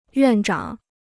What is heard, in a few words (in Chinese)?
"院长", Chinese